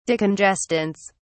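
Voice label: "decongestants", British English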